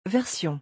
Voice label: "version", French

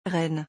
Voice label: "reine", French

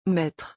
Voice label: "maître", French

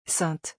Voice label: "sainte", French

